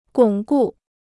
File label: "巩固", Chinese